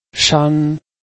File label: "shan1", Chinese